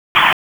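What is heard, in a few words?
Dispatch, p25; WSO DISP 1; wake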